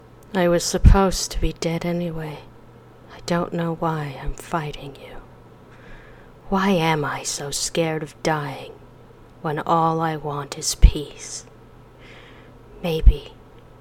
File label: Attempted Voice Acting